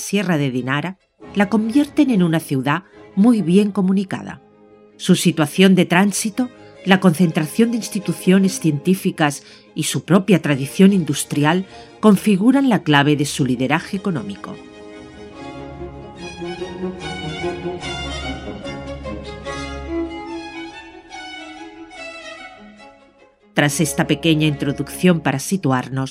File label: Zagreb Audioguia